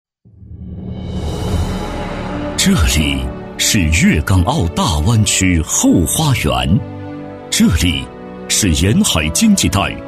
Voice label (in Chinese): 男 城市宣传片 大气恢宏; 高端厚重; 大气浑厚; 汕尾陆丰